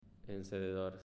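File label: "Encendedor", Spanish, male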